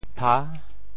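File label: "pha6", Teochew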